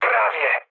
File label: bomb failure mood med, speech pol; War Thunder